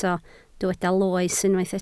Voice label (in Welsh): "loes", Welsh